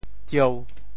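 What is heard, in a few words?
"tsieu1", Teochew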